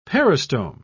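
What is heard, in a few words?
"peristome", British English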